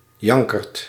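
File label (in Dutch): "jankerd", Dutch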